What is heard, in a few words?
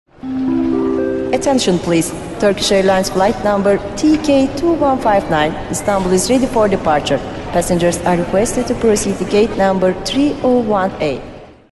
airport announcement; turkish airlines; turk hava yollari; anons